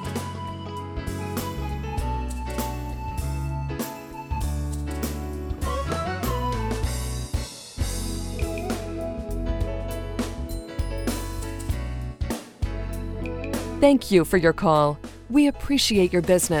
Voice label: Standard Please Hold message female